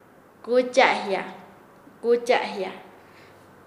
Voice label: Nasa Yuwe; unirse; paez

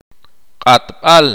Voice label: "cuchillo", K'iche'

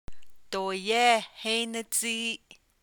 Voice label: You better watch how you speak to me, Navajo

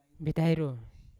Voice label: "betairo" why, Yawa

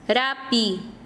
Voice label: "hoja", Quechua Chanka

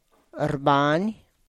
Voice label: "rrbany", live

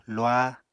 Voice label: "luah", Apatani

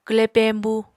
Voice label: "kwle peñbu", Apatani

